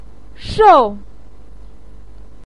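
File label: "shou", Mandarin pinyin, fourth tone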